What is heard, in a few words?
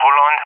"Roland", ENG; Allied Flight; AirDefence; DCS